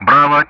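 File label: Speech Russian; Alphabet; Bravo-begin; DCS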